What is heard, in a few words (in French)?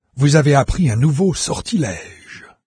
new spell, VOICES fr NARRATOR; Fate